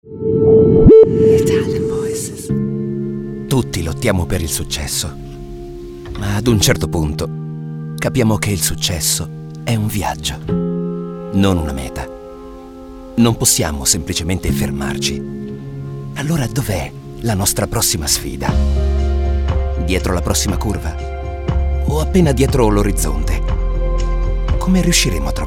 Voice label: ITALIAN VOICES Intense Emotional, male voices